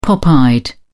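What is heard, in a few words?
"pop eyed", British English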